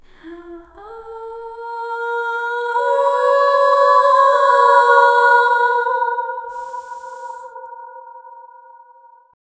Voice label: group of masquerade voice, vampire

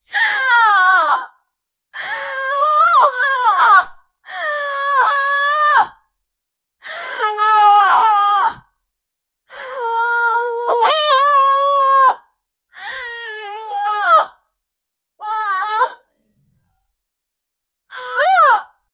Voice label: series of pass, female

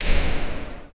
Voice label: voices, sound-effects

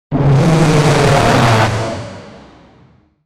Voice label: a man saying why did; voice over

